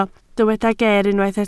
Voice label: "ger" Welsh

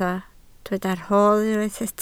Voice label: "rhodd", Welsh

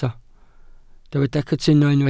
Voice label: "cytuno", Welsh

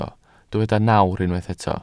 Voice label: "nawr", Welsh

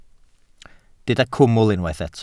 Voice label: "cwmwl" Welsh

